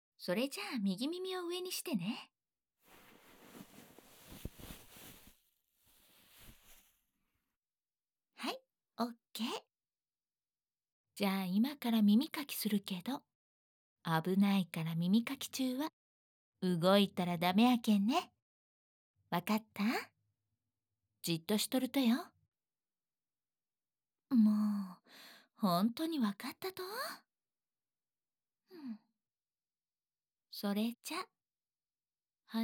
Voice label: あまあま耳かき（右耳）, はかた弁; 年上カノジョ; はかた弁の年上カノジョ; Six Senses

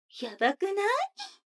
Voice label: 女の子 台詞, ASMR

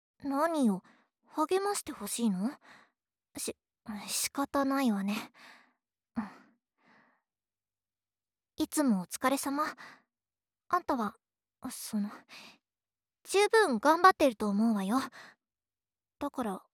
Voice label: 励ましボイス; エレナ; コラボ特典音声

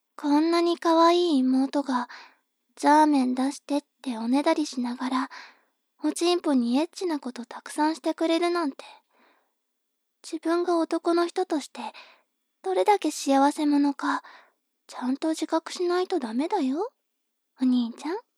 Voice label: 妹 台詞

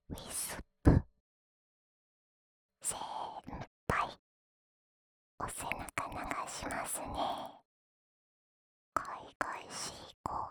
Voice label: タイトルコール, ASMR

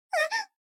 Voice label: 女の子台詞

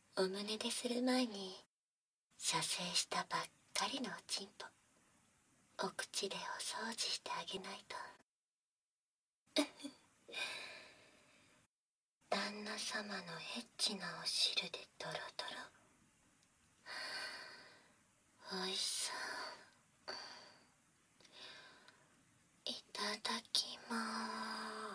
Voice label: パイズリ&お掃除フェラ（バイノーラルマイク収録 効果音あり大きめ）

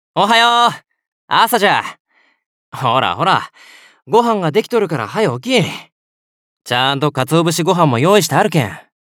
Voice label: モーニングコール, 岡山弁; 樹; DL版おまけ・システムボイス

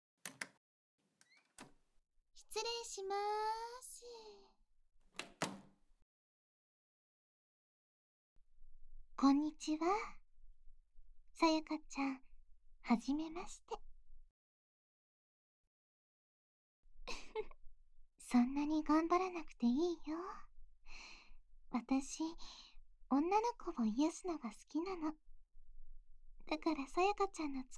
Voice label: プレイパート 水音あり, 催眠音声; おち〇ぽ中毒の風俗嬢に、堕ちたボク; お客ファイル

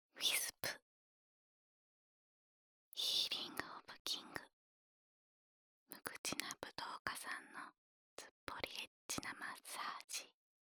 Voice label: タイトルコール

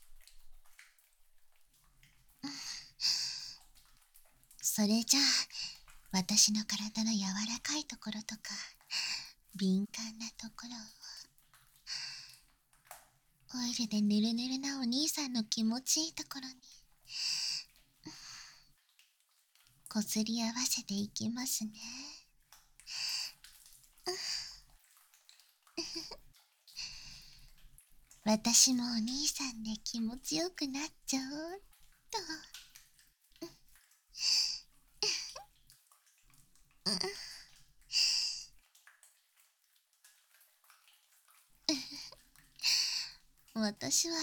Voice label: 全身性感オイルマッサージ(囁きMAX盛り seあり)

